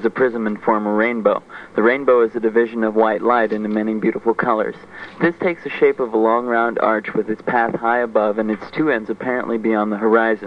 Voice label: missouri, dialects